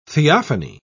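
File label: "theophany", British English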